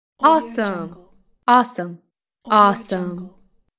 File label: female saying awesome